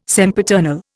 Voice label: "sempiternal", Indian

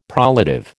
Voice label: "prolative", American

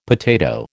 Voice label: "potato", American

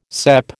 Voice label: "cep", American

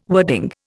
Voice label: "wording", Indian

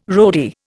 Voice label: "roadie", Indian

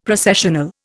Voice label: "Processional", Indian; Dictionary

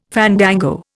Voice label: "fandango", Indian

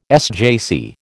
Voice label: "SJC", American

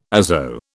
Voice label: "azo", British

